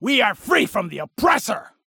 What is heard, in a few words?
Kill Cry; Opress